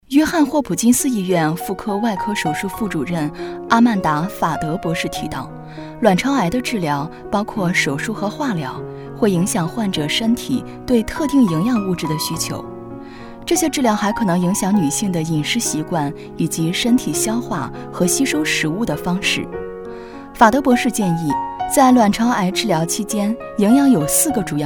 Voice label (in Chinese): 女 课程 营养目标